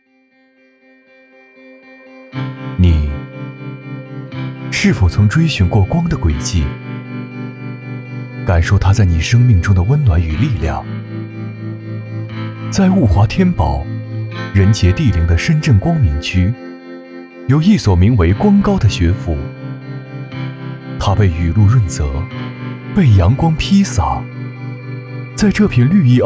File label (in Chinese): 男,学校宣传,温暖,浑厚; 光高学校